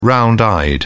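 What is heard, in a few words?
"round eyed", British English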